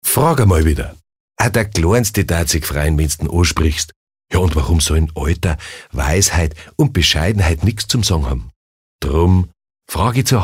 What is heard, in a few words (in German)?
Dialekt Bayerisch